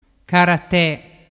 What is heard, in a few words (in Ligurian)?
"carate", dialettu